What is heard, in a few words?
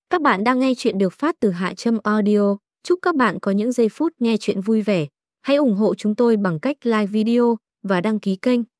intro voice; Vu Dieu Danh Cho Nguoi Khong Con Nhay; HaTramAudio